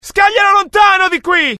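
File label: fear grenade, IT; mohaa; dialogue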